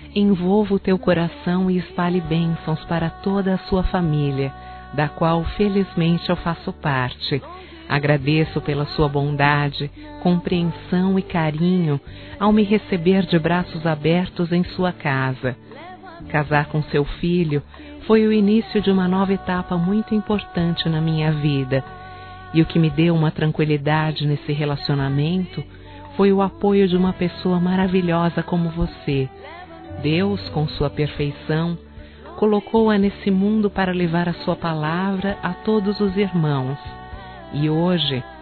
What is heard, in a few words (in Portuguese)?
Sogra Feminino; Telemensagens 2017